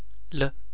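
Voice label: spoken sound "le", Chinese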